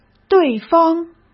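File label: "对方", Mandarin Chinese; hsk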